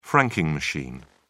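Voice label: "franking machine", English, British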